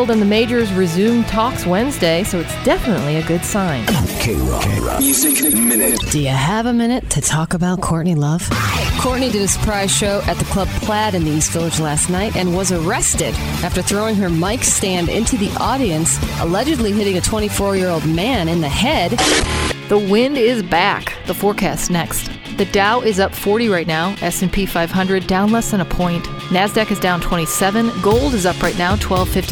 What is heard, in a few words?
news, demo